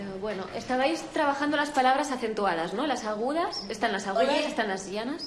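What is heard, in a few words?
VoiceActing Spanish, female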